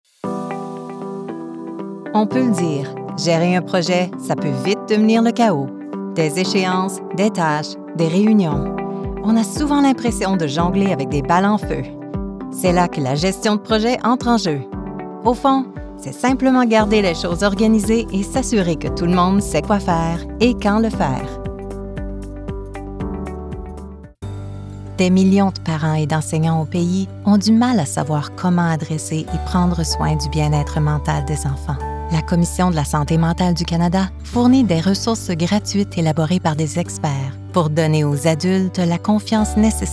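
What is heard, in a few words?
French Corporate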